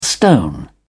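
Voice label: "stone", English, British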